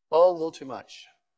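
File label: VoiceActing es M2, voice acting, Spanish, male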